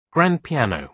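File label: "grand piano", British English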